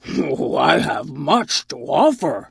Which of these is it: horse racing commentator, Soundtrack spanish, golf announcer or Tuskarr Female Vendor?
Tuskarr Female Vendor